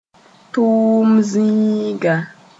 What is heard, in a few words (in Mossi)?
"tʋʋm zĩiga", moore; working place